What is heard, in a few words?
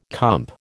"comp", American